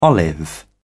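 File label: "olive", British English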